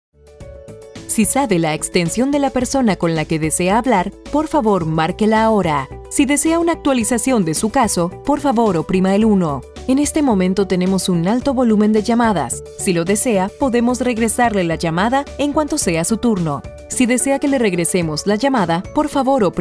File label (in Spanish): PHONE SYSTEM neutro